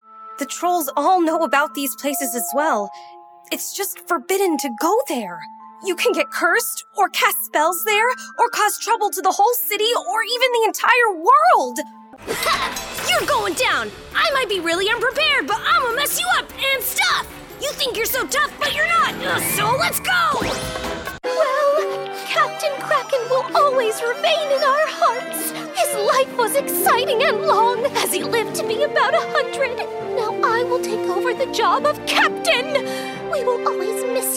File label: Voiceover Animation; New York